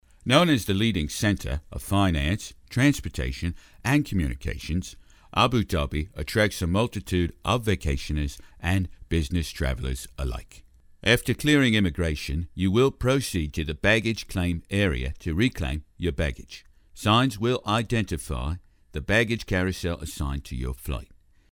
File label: Narration